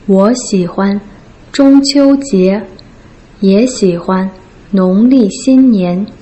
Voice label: "wo xi huan zhong qiu jie ye xi huan nong li xin nian", Putonghua